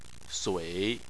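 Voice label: "sui", Chinese, third tone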